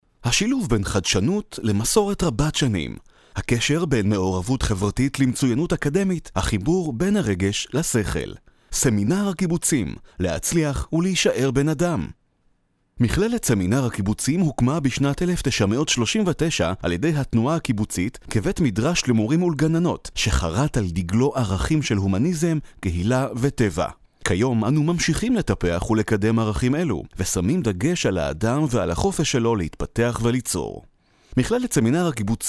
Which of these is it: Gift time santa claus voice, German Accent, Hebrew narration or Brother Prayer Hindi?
Hebrew narration